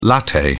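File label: "latte", British English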